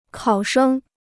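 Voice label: "考生", Chinese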